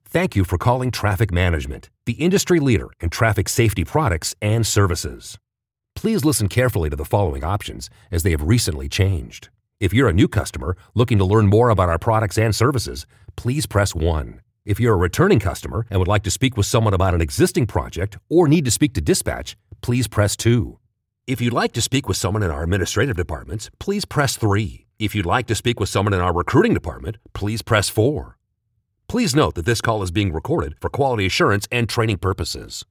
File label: Corporate Menu VO Script